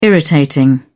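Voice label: "irritating", British English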